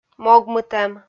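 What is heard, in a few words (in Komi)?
"могмытӧм", Komi, female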